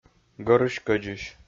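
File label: "гӧрысь-кӧдзысь", Komi, male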